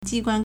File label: "ji1 guan1", Chinese